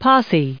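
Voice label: "posse", us English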